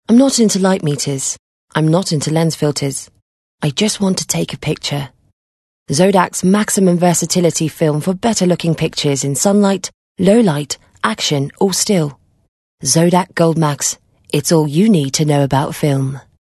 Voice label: English Conversational British Accent

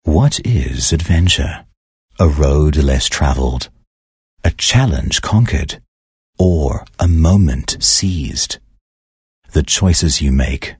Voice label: English Intimate

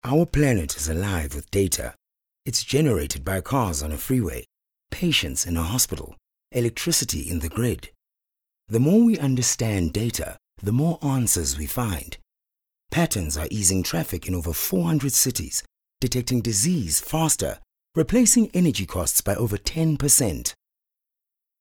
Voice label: English Soft Sell

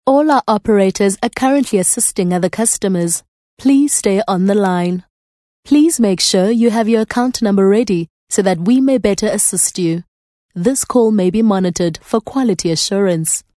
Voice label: English Corporate

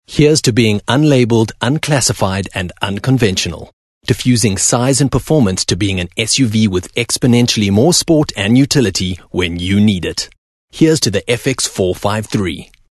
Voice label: English Hardsell

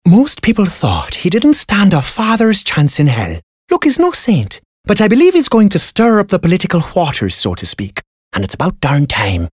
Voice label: English accent Irish

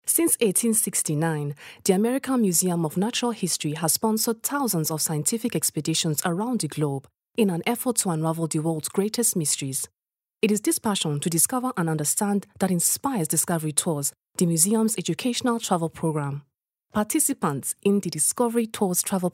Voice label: English Corporate Nigerian Accent

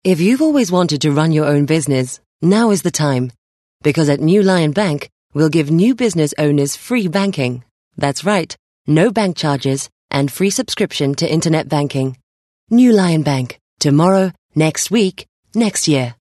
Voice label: English Corporate

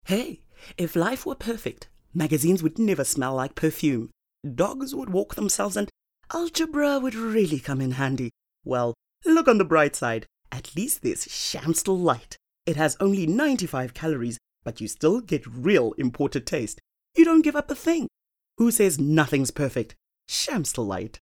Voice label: English Conversational

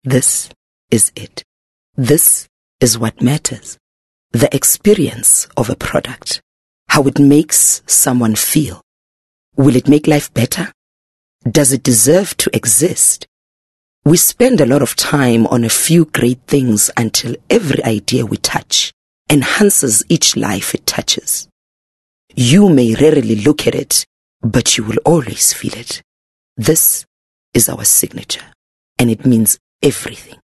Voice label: English corporate

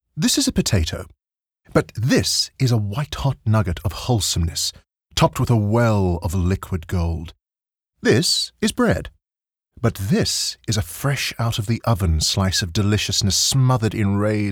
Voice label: English Bright; OSM Talent

